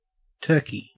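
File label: "turkey", Australian English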